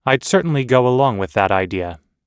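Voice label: everyday agreeing, American English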